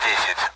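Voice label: Speech Sound Russian Common AWACS Numbers; DCS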